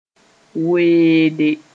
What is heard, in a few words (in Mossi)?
"weede", moore